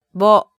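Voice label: "bo", Japanese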